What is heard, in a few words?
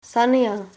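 cheers, Lingua Franca Nova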